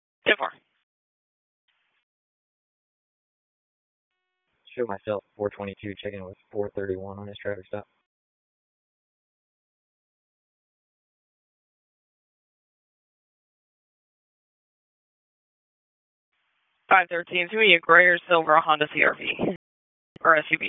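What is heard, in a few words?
Police Southeast District Dispatch; Raleigh; wake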